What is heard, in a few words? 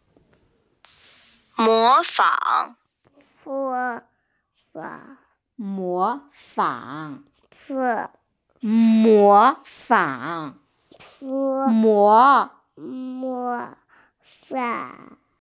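"mó fǎng", Mandarin Chinese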